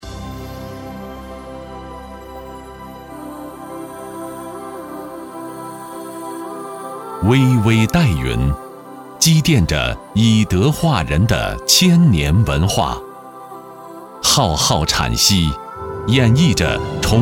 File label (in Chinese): 男 沉稳大气